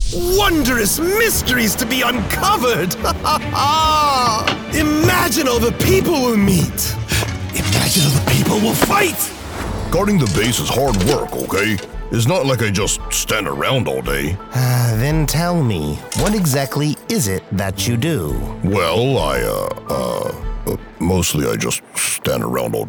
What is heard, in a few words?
Animation